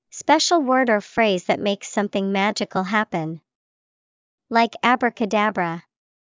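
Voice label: spell, English vocabulary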